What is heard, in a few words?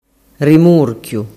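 "rimurchiu", Sicilian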